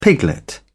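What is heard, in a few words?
"piglet", British English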